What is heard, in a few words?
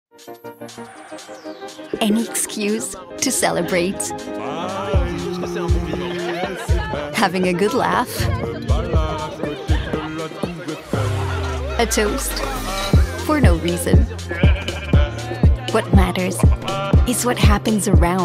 EN pub web, Voix Off Bilingue; cafedeparis